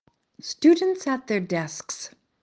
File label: "student desk", English; office furniture